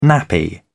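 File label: "nappy", British English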